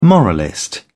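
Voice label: "moralist", British English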